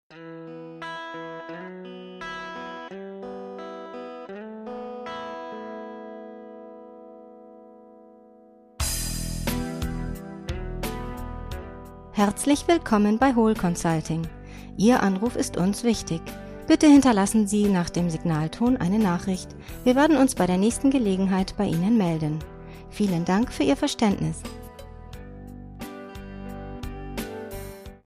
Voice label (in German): ansage